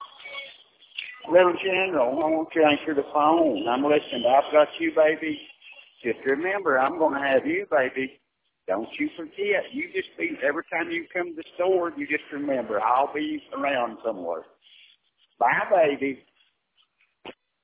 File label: Voicemail